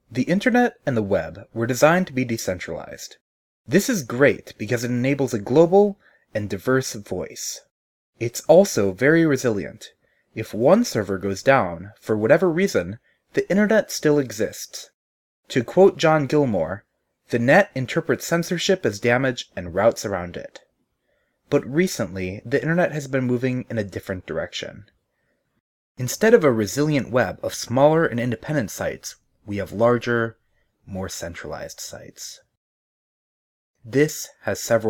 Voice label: speaking denoise